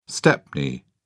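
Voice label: "stepney", British English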